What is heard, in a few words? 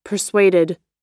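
"persuaded", US English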